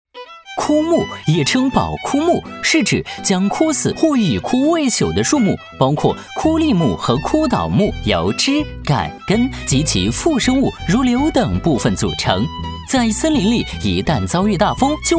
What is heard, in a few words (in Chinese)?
男, MG, 轻松活泼, 声音百变 轻松活泼; 枯倒木枯立木; MG飞碟